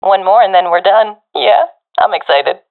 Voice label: enemies left one, professional fem; vo; Counter Strike Model Pack; CS:GO